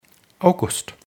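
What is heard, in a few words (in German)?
"August", German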